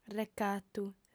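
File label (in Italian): "recatu", lingua sarda, fem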